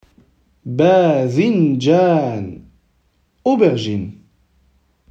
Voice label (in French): aubergine en arabe